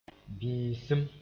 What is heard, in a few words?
"bĩisim", moore